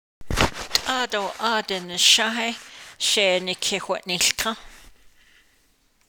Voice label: Right before I got there it started to rain on me, Navajo